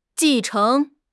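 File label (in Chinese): "继承", Chinese; HSK6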